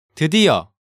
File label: "Deudieo", Hangeul sounds; DigitalSoju